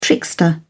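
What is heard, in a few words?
"trickster", British English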